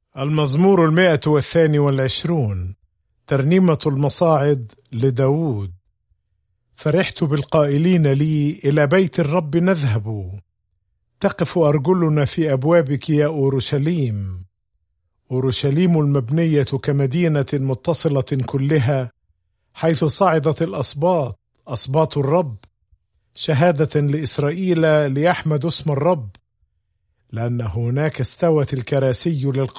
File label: Psalm, arabic bible; psalms